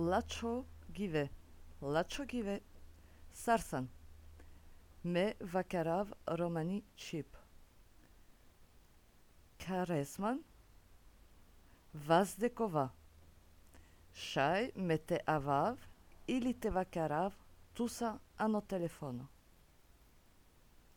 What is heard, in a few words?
Romani